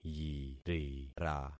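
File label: "yirira", concatenated syllables; Affixing experiment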